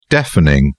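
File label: "deafening", British English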